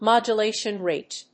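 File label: "MODULATION RATE", English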